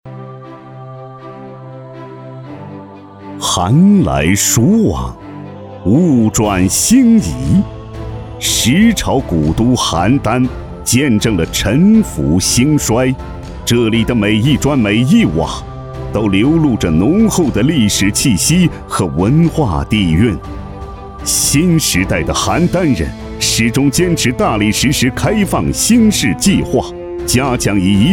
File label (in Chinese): 城市 大气 专题; 邯郸崛起试样